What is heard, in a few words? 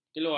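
"keluang", Malay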